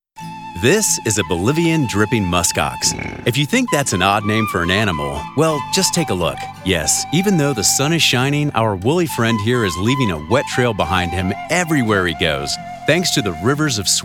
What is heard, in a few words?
Explainer Outdoor Apparel; Patagonia